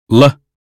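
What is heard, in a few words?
American phonetics sound look; 英语音标发音（剑桥版）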